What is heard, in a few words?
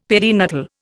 "perinatal", Indian; Dictionary